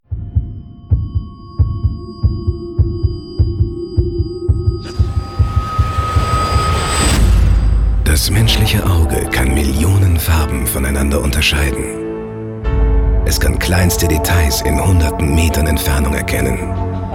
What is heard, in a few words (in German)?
Imagespot, maenner; HD ProSieben